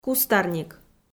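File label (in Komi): "кустарник", Komi-Zyrian, female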